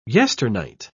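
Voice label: "yesternight", British English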